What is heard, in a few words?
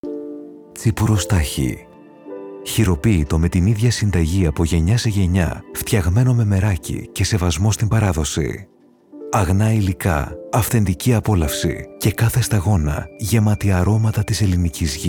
Greek, male; Spirit